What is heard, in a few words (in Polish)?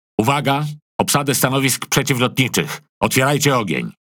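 ANTI AIR HINT, Polish; PVE; World Of Warships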